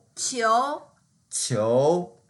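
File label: "qiu2", Mandarin Chinese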